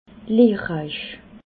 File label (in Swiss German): "lehrreich", alsace